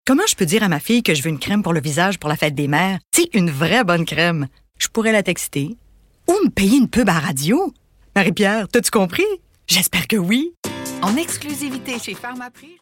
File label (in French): Publicité; Pharmaprix